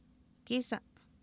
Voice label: "kiisa", Oapan, female; Oapan minimal pairs 1998

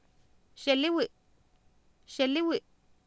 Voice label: xeliwi, Oapan minimal pairs female; Oapan minimal pairs 2000

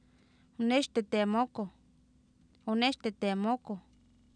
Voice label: oonEexteteemOokoh, Oapan minimal pairs female; Oapan minimal pairs 1998

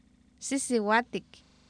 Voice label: sIsiwAatik, Oapan minimal pairs female; Oapan minimal pairs 1998

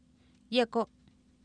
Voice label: yEkO, Oapan minimal pairs female; Oapan minimal pairs 1998

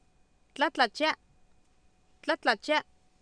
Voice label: Oapan minimal pairs, female; tlAtlachiA; Oapan minimal pairs 2000

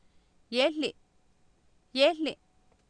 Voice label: "yeehli" Nahuatl, Oapan, female; Oapan minimal pairs 2000

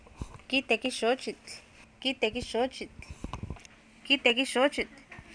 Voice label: kIitekih xoochitl, Oapan minimal pairs female; Oapan minimal pairs 1998

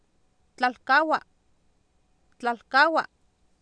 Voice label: "tlalkaawa" Nahuatl, Oapan, female; Oapan minimal pairs 2000

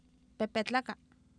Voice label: pepetlaka, Oapan minimal pairs female; Oapan minimal pairs 1998

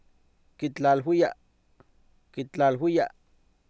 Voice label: "kitlaalwiya", Oapan, male; Oapan minimal pairs 2000

